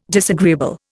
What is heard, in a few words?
"disagreeable", Indian